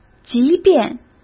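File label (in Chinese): "即便", Mandarin Chinese; hsk; chinese-flashcards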